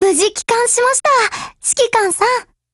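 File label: OPERATION OVER, Japanese; GF Alarm; M1897Mod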